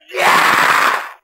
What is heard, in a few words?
"yeah" 8 bit fighting guy; ooh ahh